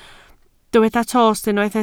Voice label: "tost", Welsh

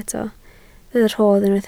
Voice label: "rhodd", Welsh

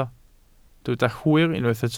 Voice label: "hwyr", Welsh

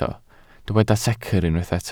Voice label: "secr" Welsh

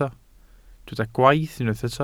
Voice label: "gwaith" Welsh

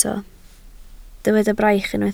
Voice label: "braich", Welsh

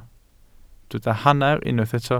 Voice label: "hanner", Welsh

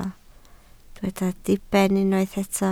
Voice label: "diben" Welsh

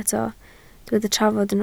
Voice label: "trafod", Welsh

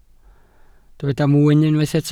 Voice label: "mwyn", Welsh

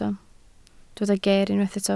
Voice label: "geer" Welsh

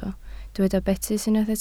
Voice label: "betys", Welsh

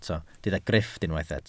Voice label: "grifft", Welsh

